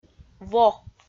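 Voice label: "во", Komi, female; audio dict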